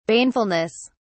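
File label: "banefulness", British English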